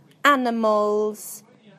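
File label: "animals", British English; PictureDictionary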